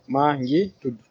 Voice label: my name is, wolof